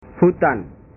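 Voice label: "hutan", Indonesian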